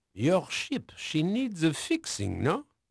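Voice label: French male, voice; Pirates Of The Caribbean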